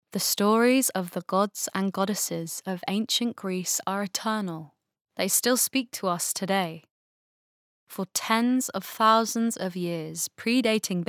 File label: Narrative